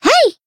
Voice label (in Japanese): attack jp; pizzica